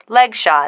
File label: "leg shot", female